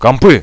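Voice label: computers, Russian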